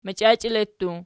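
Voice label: "machiachele" plural, Archi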